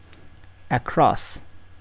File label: "across", m